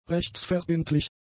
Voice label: "rechtsverbindlich", German; adjectives

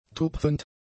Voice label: "tupfend", German; adjectives

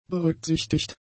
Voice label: "berücksichtigt", German; adjectives

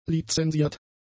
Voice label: "lizensiert", German; adjectives

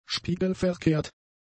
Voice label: "spiegelverkehrt", german; adjectives

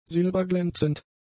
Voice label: "silberglänzend", German; adjectives

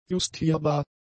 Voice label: "justierbar", german; adjectives

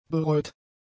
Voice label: "bereut", German; adjectives

